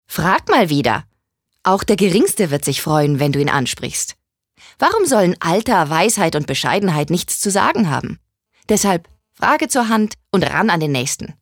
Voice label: Werbung Frech

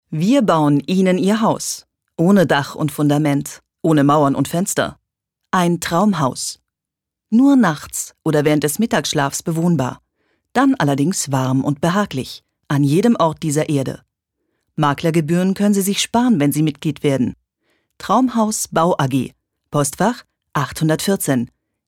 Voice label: Werbung Freundlich

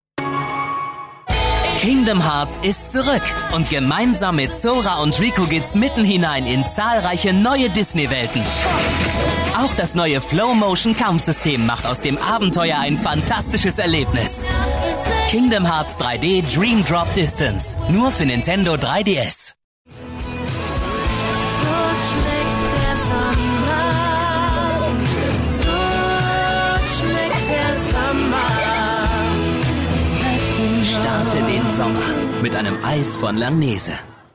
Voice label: Werbung Variabel

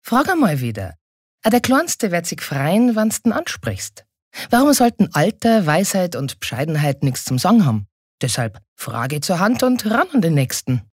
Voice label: Dialekt Bayerisch

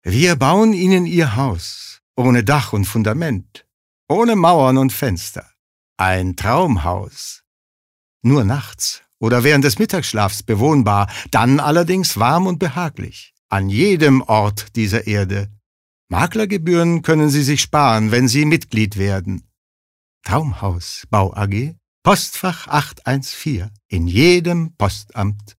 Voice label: Werbung Freundlich

